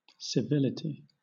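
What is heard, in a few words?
"civility", English, British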